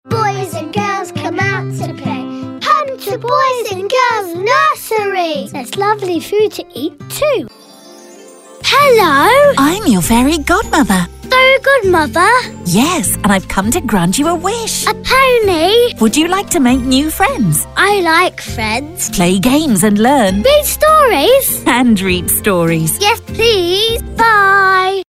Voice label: Kidztalk